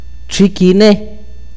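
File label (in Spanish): oído, akateko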